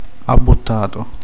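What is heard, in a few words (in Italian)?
"gonfiato", Sicilian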